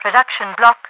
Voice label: production block, radio voice; hl2; npc; overwatch